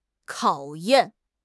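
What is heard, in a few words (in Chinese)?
"考验", Chinese; HSK; HSK6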